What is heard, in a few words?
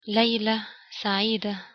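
"Layla Saiida", Arabic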